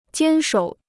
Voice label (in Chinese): "坚守", Chinese